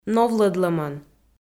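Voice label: "новлӧдлӧмӧн", Komi, female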